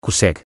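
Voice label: "cussec", Portuguese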